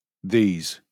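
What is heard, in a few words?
"these", British; pronunciations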